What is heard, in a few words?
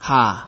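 "her", Nigerian Pidgin, male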